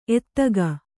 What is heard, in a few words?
"ಎತ್ತಿಗ", Kannada